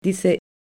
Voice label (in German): "diese", ausspracheschulung fehlerbeispiele